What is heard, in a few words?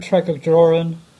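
"Tregajorran", English; place-names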